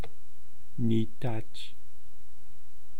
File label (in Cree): "niihtaahch", eastcree